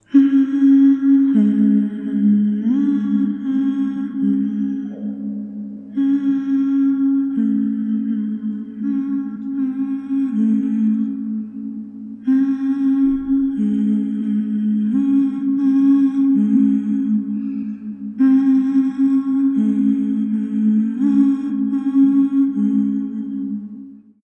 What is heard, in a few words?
Female Ghost Hunting; Phasmophobia